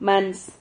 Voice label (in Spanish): "mans", Silabas